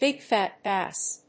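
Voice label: "Big Fat Bass", English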